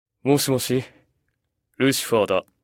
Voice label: Phone; Lucifer